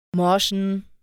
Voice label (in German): "Morschn", German; hello in german